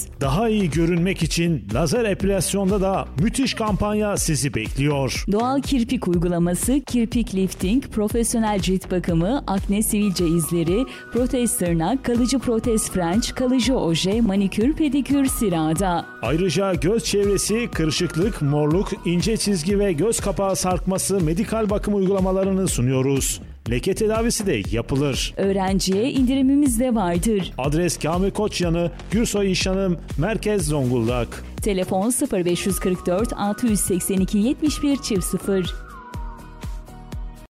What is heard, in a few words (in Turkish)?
Reklam